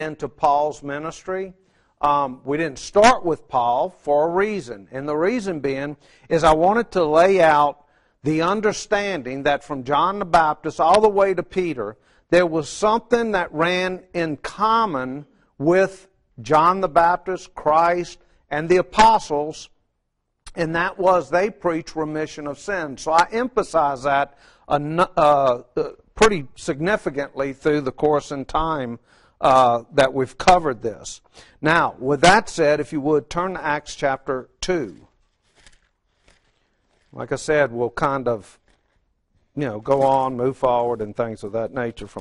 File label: English